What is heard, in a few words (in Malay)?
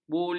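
"buli", Malay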